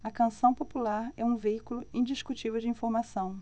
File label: PORTUGUESE BRAZIL